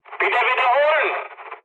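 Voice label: German chat, speech; War Thunder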